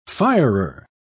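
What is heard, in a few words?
"firer", British English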